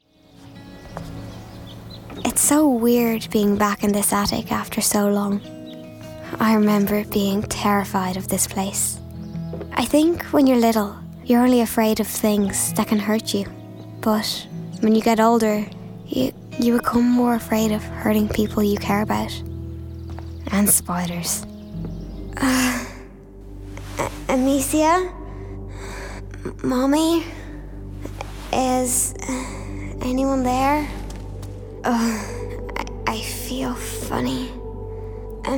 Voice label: game reel